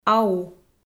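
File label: "ау", Komi-Zyrian, female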